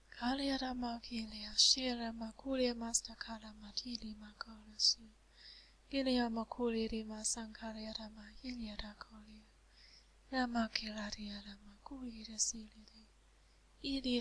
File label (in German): beispiel zungenrede mit auslegung prophetischer fluss